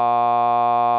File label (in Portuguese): Sinais de voz com variação de R_k, R_k=0.2